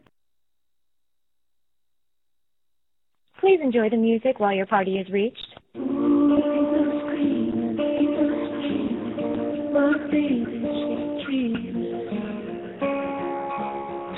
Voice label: voice mail greeting